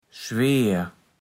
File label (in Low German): "Schweer", platt